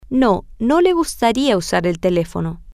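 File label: Spanish, female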